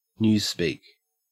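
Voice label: "newspeak", Australian English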